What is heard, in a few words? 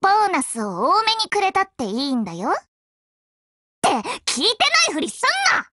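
WIN Japanese, Voice; GF Alarm; Delisle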